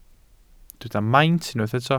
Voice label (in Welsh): "maint" Welsh